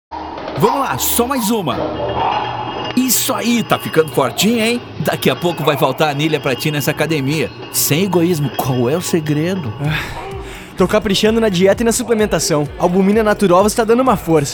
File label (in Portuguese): Spot